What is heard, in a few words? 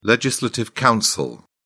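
"legislative council", British English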